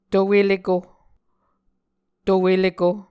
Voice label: "to eleko", Muscogee

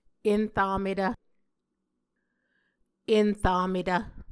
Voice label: "enrametv", muscogee

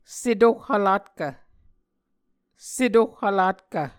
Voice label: "setohhvlatkv", Muscogee; Mvskoke Words with Repeated Consonants; Martin, Jack B., and Margaret McKane Mauldin. 2023. A Dictionary of Creek/Muskogee

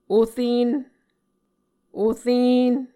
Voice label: "oren", Muscogee

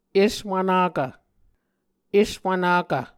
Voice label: "eswvnakv", Muscogee

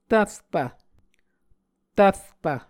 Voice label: "tvrpv", Muscogee

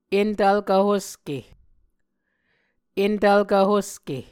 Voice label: "entvlkvhoske", Muscogee

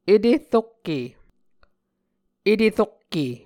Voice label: "eterokke", muscogee; Mvskoke Words with Repeated Consonants; A Dictionary of Creek/Muskogee; SIL International

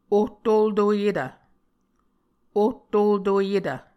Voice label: "ohtoltoyetv", Muscogee

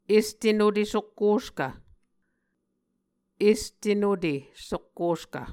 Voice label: "este nute sokkoskv", Muscogee

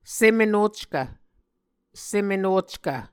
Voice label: "sem-enockv", Muscogee

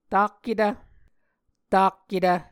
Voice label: "takketv", Muscogee; A Dictionary of Creek/Muskogee; SIL International

